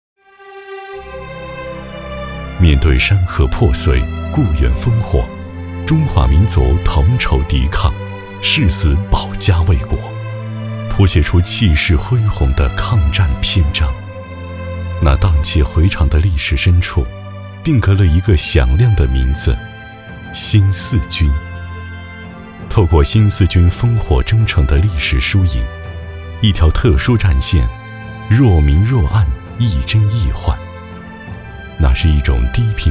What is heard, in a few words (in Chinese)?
男 红色纪录; 新四军